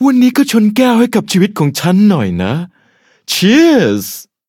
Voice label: level up, th; Cookie Run

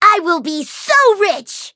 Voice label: menu tap eng; chili pepper; Cookie Run

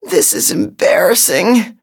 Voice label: defeat, en; cookierunwiki

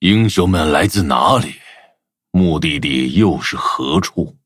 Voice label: loading, zh-hant; Cookie Run

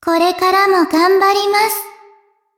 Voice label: levelup ja; cookierunwiki

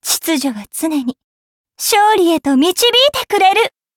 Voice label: victory, ja; cookie run

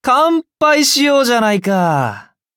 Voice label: level up, ja; Cookie Run

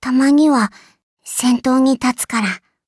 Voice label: levelup ja

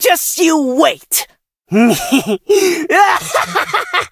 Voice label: defeat, en; Cookie Run

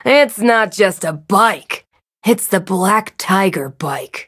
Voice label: touch, en; cookie run

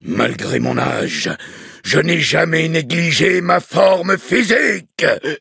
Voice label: levelup, fr; cookierunwiki

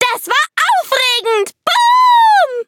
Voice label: victory, de; cookie run

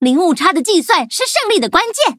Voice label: loading, zh-hant; cookie run

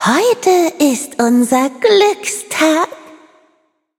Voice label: victory, de; cookie run

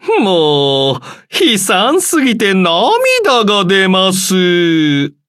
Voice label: victory, ja

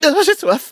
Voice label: hit fr; cookierun

